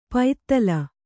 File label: "ಪೈತ್ತಲ", Kannada